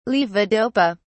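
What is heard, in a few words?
"levodopa", British English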